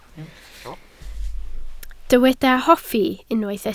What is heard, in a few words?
"hoffi", Welsh